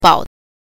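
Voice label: "bao", Mandarin Chinese pinyin, neutral tone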